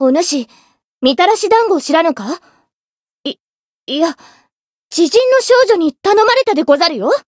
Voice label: story, jp

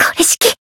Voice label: damaged, jp

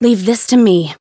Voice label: chant; felmina

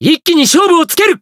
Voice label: limitbreak, jp; cless

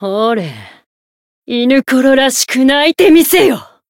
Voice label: Voice limit break jp; eni

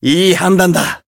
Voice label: healed, jp

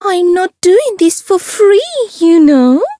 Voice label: Voice chant; hoozuki

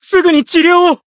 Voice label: heal jp; nomar

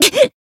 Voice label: Voice damaged, jp

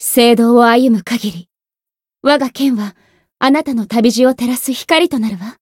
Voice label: Voice story, jp; anaberu

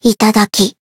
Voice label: finish, jp; nagi

